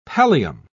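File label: "pallium", British English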